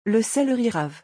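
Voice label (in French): "Le celeri rave", French; vocabulaire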